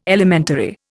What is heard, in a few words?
"elementary", Indian